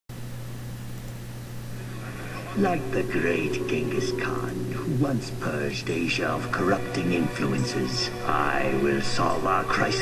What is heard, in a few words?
Mandarin